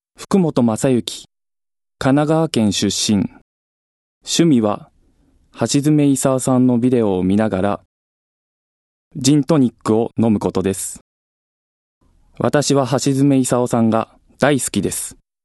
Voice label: freetalk, male voice